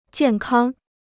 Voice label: "健康", Chinese